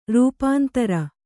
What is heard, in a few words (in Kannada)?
"ರೂಪಾಂತರ", Kannada